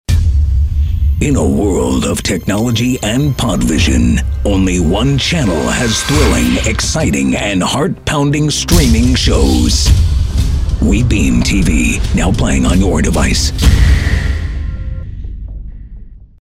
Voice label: BUMPER MOVIE TRAILER GUY; WEBEAMTV